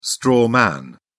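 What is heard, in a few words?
"straw man", English, British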